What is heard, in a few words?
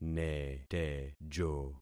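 "netejo", concatenated syllables; Affixing experiment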